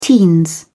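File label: "teens", British English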